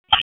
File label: PS TAC, p25